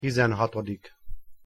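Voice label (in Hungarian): "tizenhatodik", Hungarian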